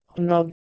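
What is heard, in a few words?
"h3nobh", Proto-Indo-European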